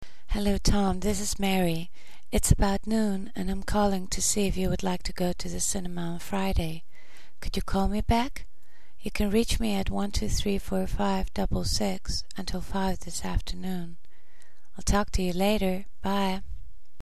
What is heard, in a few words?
phone answeringmachine